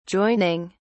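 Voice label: "joining", British English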